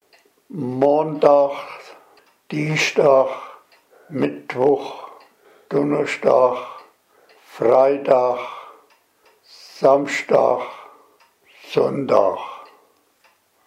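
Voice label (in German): wochentage, O-Ton Hunsrück; Damscheid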